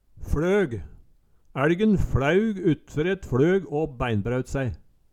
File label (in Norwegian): fLøg, Numedalsmål; ÆLgen fLæug utfer et fLøg å bæinbræut sæi